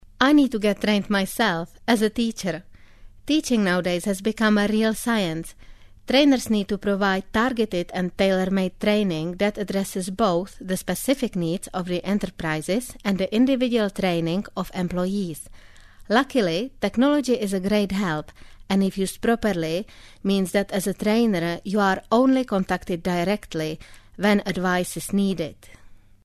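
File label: Czech English F Training, female, accent of Czech Republic; voice over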